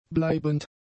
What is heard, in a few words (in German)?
"bleibend", German; adjectives